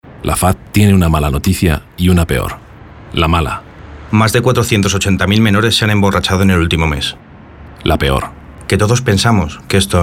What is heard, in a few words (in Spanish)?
RADIO